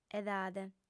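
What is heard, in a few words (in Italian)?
"edade", lingua sarda, female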